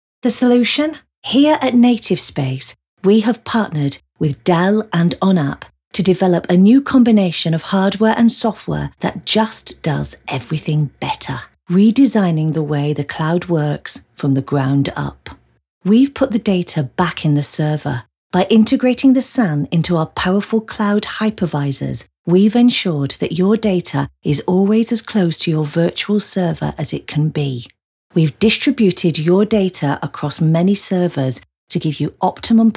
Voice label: Commercial Voice Reel, Northern, Warm, Witty, Relatable, Calming, Characterful